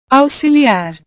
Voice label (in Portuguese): "auxiliar", portugues